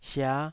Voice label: "sia5", taiwanese Syllables

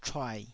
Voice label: "chuai3", taiwanese

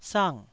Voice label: "sang4", taiwanese

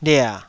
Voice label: "lia3", Taiwanese syllable

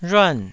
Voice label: "rhuan4", taiwanese

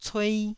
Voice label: "cuei1", Taiwanese syllable

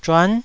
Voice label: "zhuan9", Taiwanese syllable

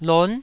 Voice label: "lon9", Taiwanese syllable

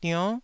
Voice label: "dionn9", Taiwanese syllable

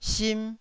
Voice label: "sim1", Taiwanese syllable

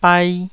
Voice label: "bai1", taiwanese

